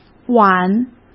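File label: "挽", Mandarin Chinese; hsk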